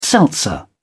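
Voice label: "seltzer", British English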